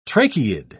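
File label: "tracheid", British English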